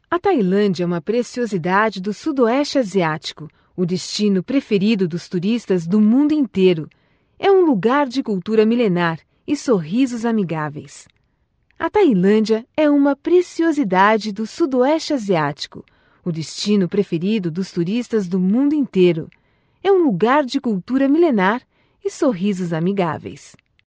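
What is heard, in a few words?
Portuguese Brazil, female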